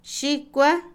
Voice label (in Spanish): Otomí, mujer; decalzo; Cuerpo; INALI